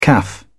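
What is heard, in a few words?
"caff", English, British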